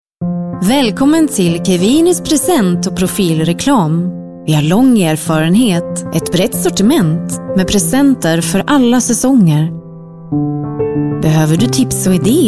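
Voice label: swedish female commercial; voice demos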